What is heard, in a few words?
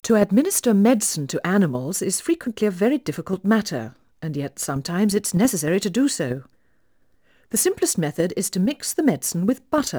Female speech English Mono output noised, 3bit